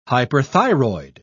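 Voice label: "hyperthyroid", British English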